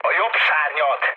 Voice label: right wing, speech hun; War Thunder

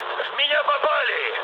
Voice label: aircraft damaged, mood med, speech ru

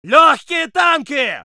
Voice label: voice sov infantry

